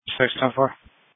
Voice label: Police Dispatch